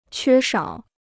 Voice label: "缺少", Chinese

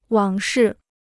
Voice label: "往事", Chinese

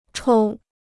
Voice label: "抽", Chinese

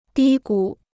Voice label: "低谷", Chinese

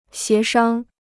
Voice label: "协商", Chinese

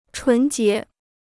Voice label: "纯洁", Chinese

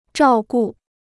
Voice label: "照顾", Chinese

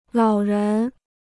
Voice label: "老人", Chinese; 老人 (lǎo rén); the elderly

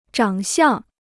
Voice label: "长相", Chinese

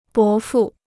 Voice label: "伯父", Chinese

